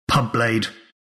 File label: announcer; pub blade; announcer dlc